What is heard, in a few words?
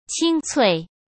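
"清脆", Mandarin Chinese; chinese flashcards; hsk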